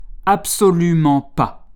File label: "absolument pas", French